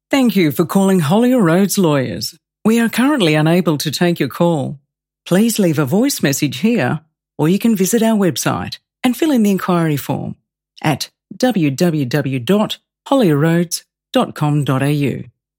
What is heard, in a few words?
law welcome, law firm phone greetings